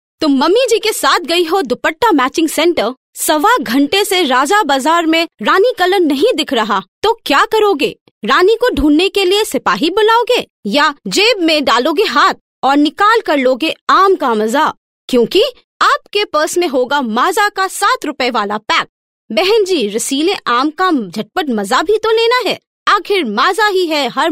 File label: Hindi, female voice